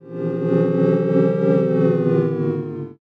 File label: chatter